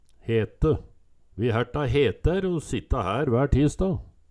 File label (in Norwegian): "hete", Numedalsmål; Vi hærta hetær å sitta hær vær tisda